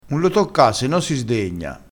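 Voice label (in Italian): "sdegnassi", dialettale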